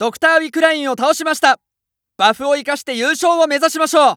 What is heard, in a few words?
Announce Wickeline Die, JPN; Eternal Return